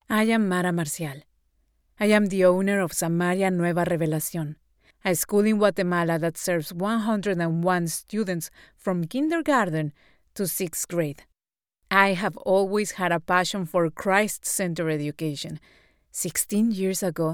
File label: english documentaries